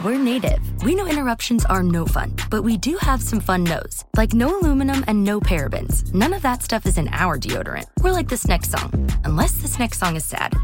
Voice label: Native Radio Spot